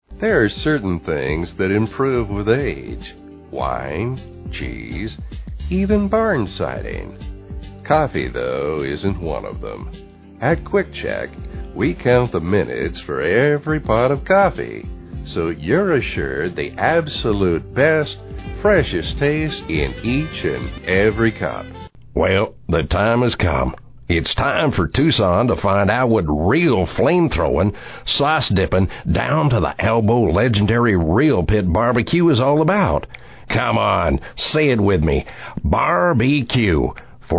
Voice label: ole timer

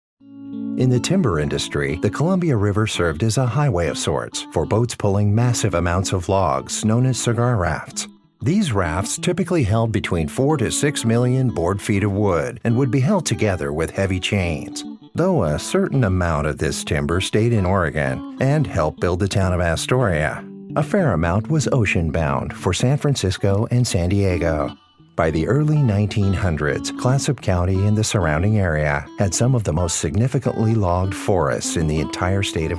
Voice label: Articulate Engaging Documentary

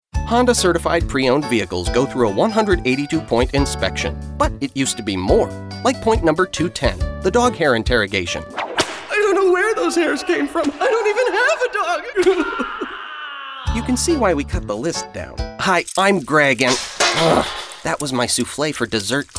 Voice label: Commercial

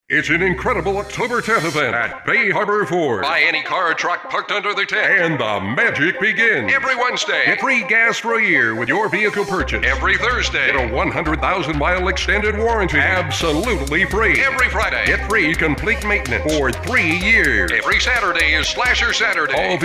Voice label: Hard sell

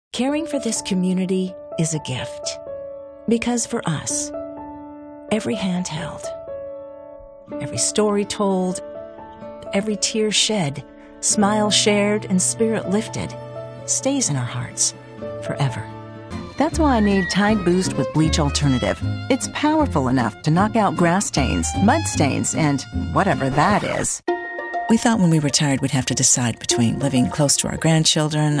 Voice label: Commercial

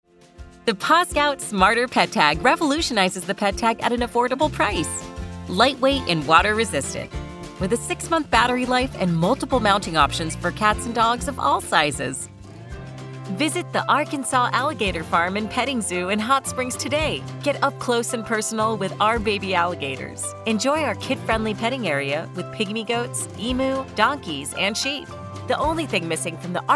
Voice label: VO upbeat cheerful optimistic

